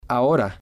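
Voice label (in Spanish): "ahora", spanish, male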